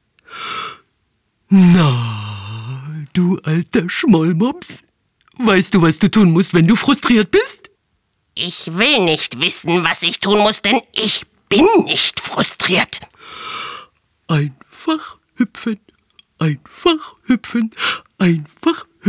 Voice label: Demo Dialog Charge

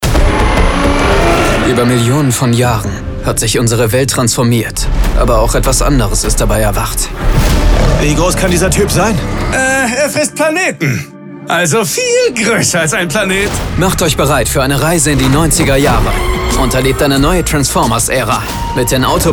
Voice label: Werbung, Commercial, German

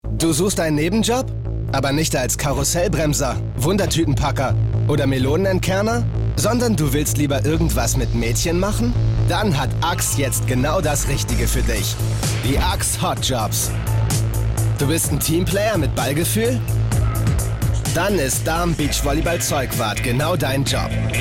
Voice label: kino spot; axe

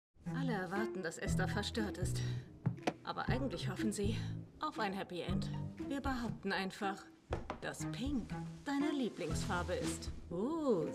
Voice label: SYNCHRO

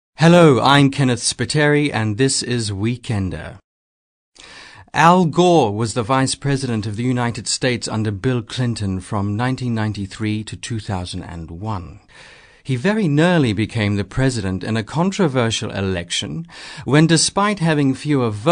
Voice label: uk englisch

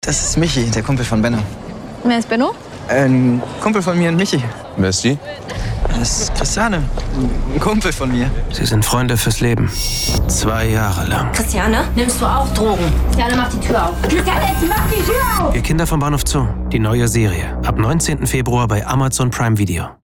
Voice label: Werbung Funk; Wir Kinder vom Bahnhof Zoo Amazon Prime Video

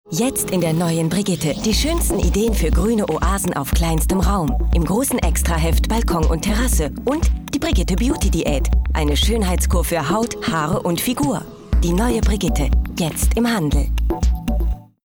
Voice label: Werbespot; Brigitte; LOFT VOICES

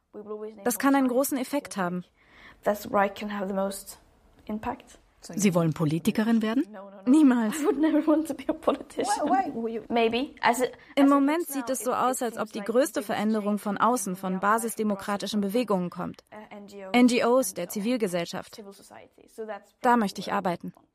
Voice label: Voiceover; Maischberger; LOFT VOICES

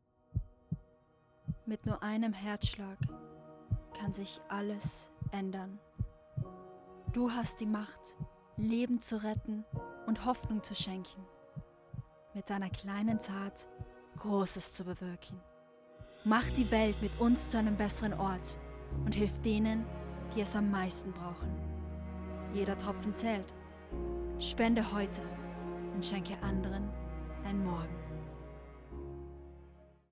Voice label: Werbung Spendenaufruf Deutsch

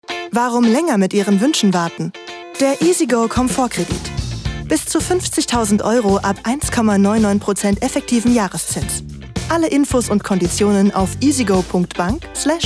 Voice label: Werbung Kredit; EasyGoKredit

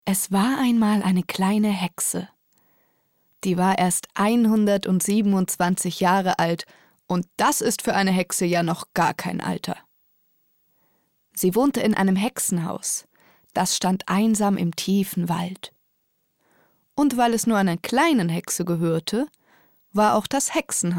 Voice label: erzaehlung

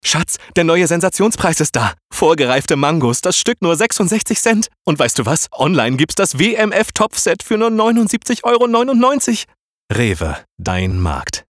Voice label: Werbung

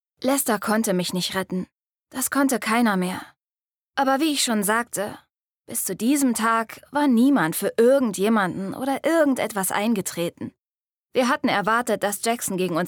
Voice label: erzaehlung

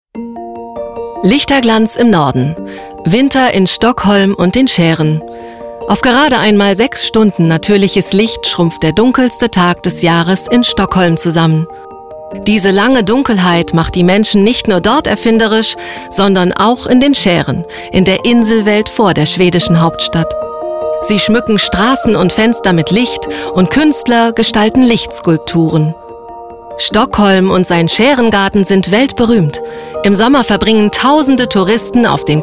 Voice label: Dokumentation; Schweden

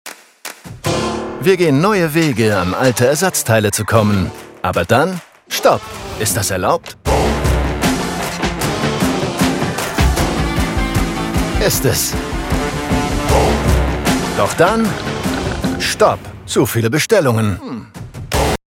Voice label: Werbung TV; UPS

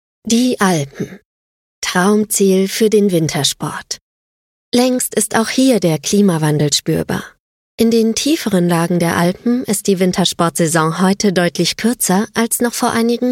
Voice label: Dokumentation, Comment (Kommentar), German; Dokumentation I Alpen; voice

